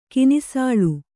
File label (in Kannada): "ಕಿನಿಸಾಳು", Kannada